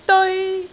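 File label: "deoi1", Cantonese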